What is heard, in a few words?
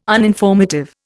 "uninformative", Indian